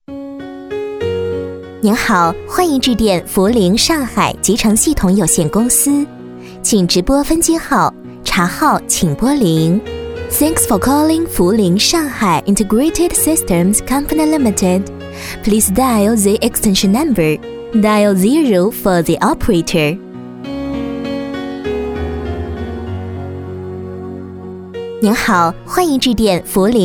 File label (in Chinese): 彩铃中英文彩铃